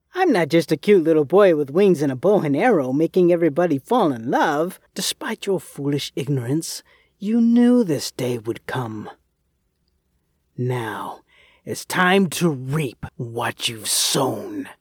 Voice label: Character Samples Bubbly, Childlike, Friendly; Threatening, male; Authoratative